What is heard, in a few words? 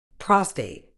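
"prostate", medical English, British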